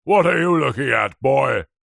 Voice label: human old grumpy man english says angrily what are you looking at boy; zapsplat